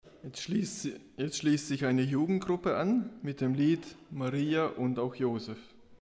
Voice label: Ansage Lied

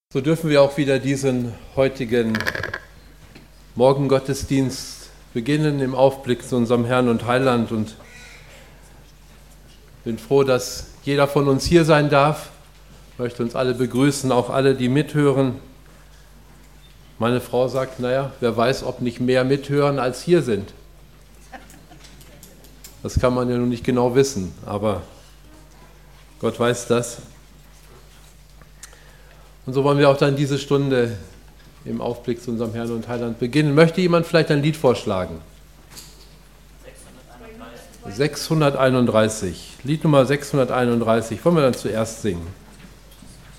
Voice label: Ansage

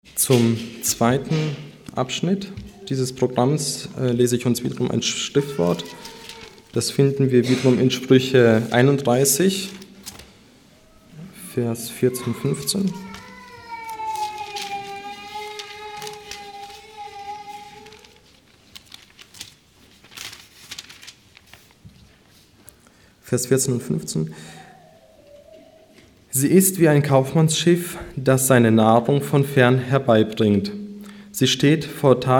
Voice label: Ansage

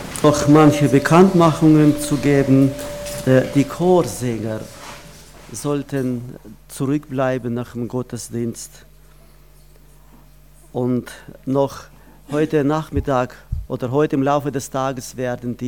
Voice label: Ansage; Ist Christus mein Leben

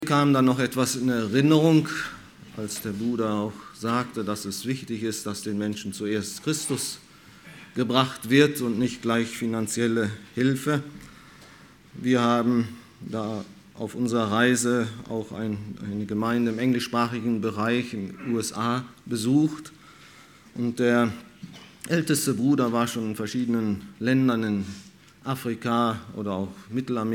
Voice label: Ansage; Ein Bild eines Missionaren

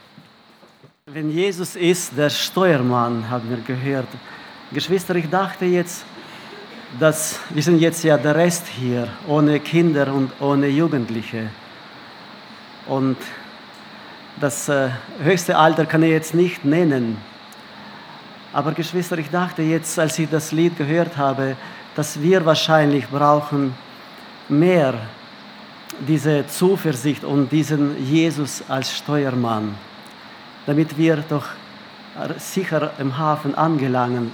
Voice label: Ansage; Verkuendiger der Tugenden Jesu

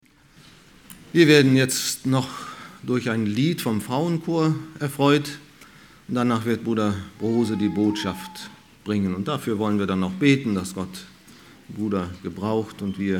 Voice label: Ansage; Aus Zion bricht an der schöne Glanz Gottes

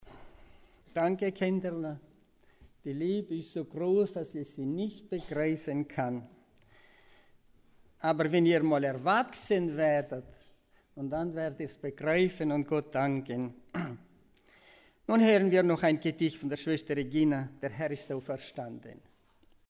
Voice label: Ankuendigung Gedicht

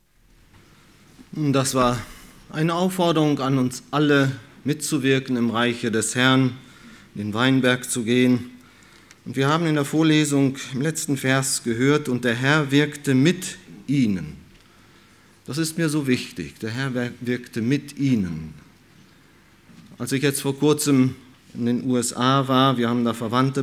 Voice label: Ansprache; Ein Bild eines Missionaren

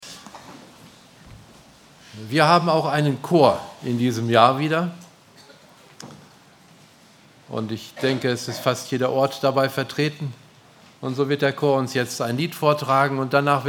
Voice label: Ansage; Lagerversammlung 2016; Herr, hier ist gut sein

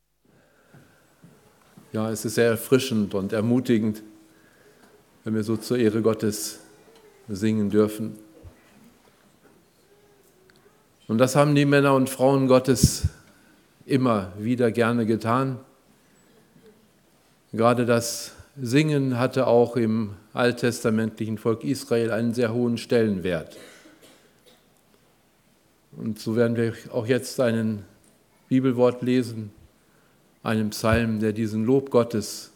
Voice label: Ansprache; Zeugnis, was ist das

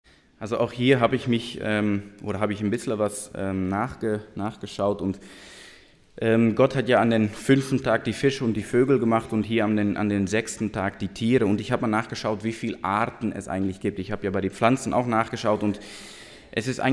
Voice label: Kommentar

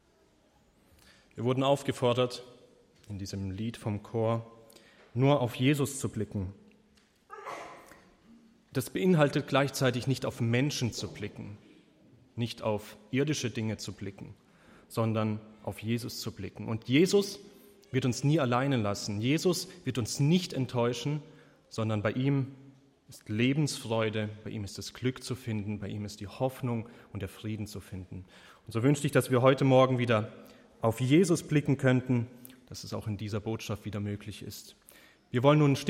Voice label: Kommentar

